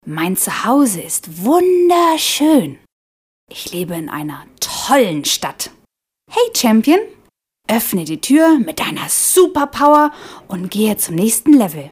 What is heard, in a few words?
DE fem children